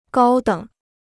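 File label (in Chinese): "高等" Chinese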